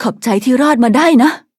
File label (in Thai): defeat, th; cookie run